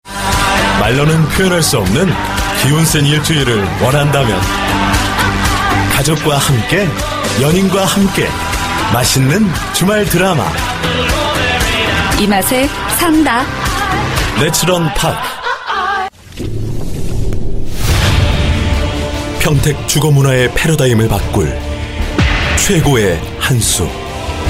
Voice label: Korean Male

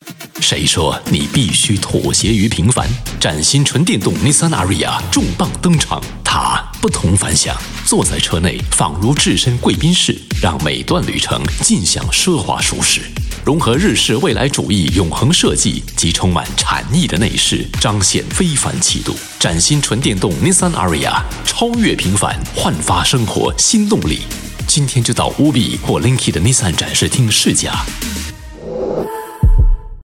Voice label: Mandarin deep brisk; Nissan Ariya

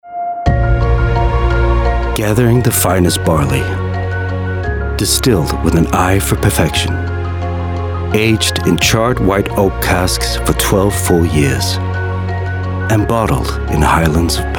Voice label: US English; Whisky

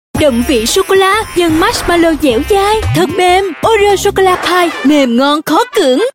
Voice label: Vietnamese Female

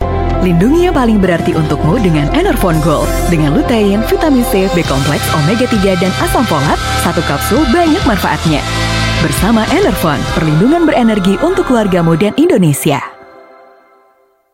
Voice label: Bahasa Indonesia; Tinder

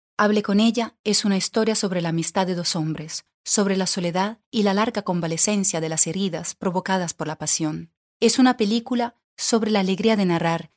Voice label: Spanish Latin Audiobook Narration

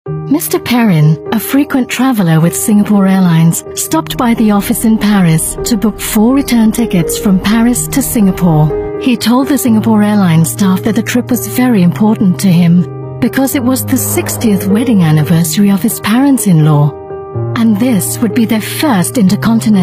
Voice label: Asian English Marketing; SIA True Stories France

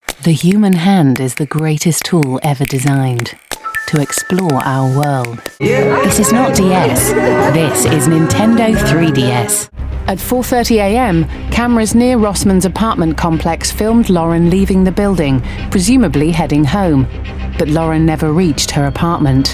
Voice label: UK English Voiceover Compilation, Female

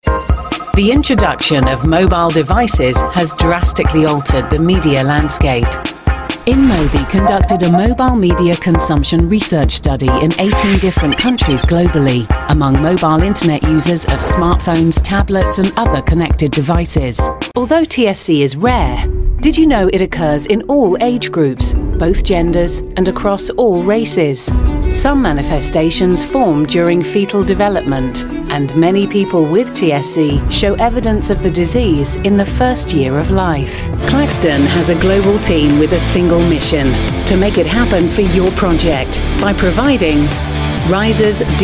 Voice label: UK English Corporate Compilation, Female

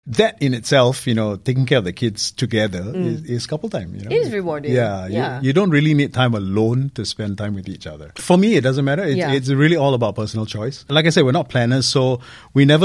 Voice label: Asian English On fertility Issues

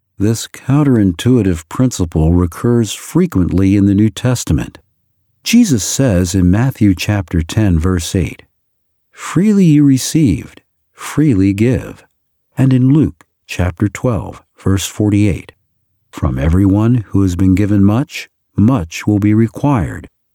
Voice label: US English Audiobook

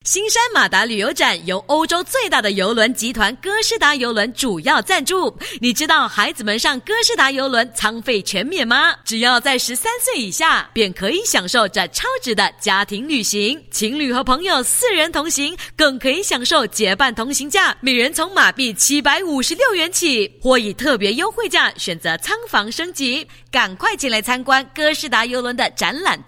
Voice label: Mandarin, China Female; Costa Chinese

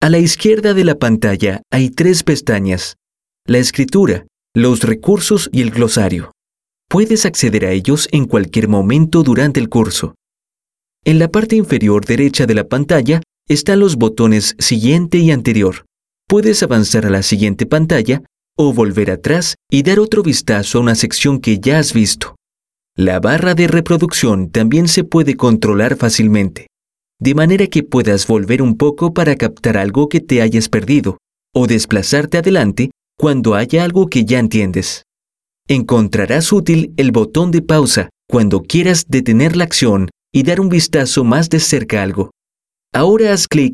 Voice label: Latin Spanish Chile Instructional

